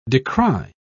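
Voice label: "decry", English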